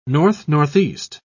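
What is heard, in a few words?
"north-northeast", British English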